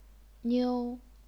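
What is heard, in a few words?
"niu", first tone, chinese pinyin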